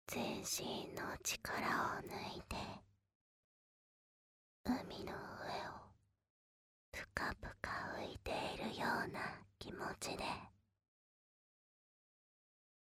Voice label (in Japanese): 囁き声とマッサージ, ASMR